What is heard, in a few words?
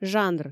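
"жанр", Komi, female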